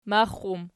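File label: "max" plural, Archi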